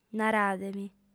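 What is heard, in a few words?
"narade·li", Sardinian, female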